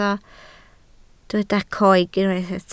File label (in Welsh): "coeg", Welsh